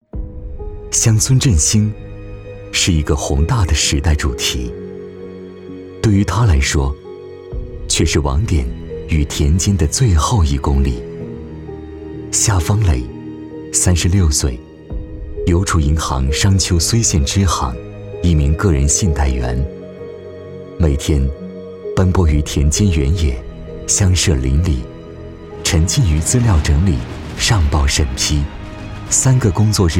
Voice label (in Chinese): 男 人物 温暖 讲述; 邮储银行